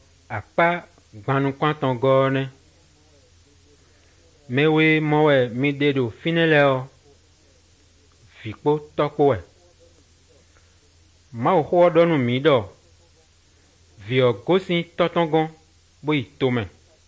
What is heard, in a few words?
good news, fon fongbe bible drama